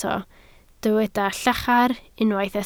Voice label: "llachar", Welsh